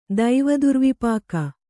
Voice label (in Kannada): "ದೈವದುರ್ವಿಪಾಕ", kannada